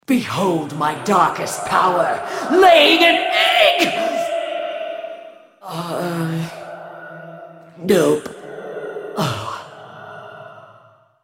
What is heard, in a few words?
joke, en US; BlackIceAnivia